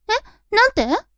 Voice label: women